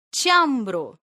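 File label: "ĉambro", esperanto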